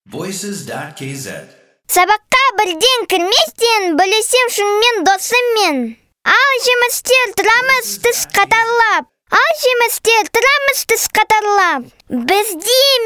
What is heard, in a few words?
kz kid